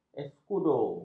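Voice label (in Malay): "escudo", Malay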